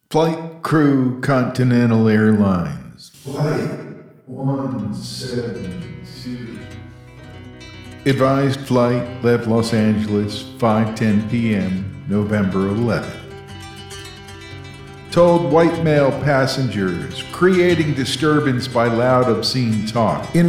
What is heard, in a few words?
POETRY JAM MIX; JIM MORRISON ARREST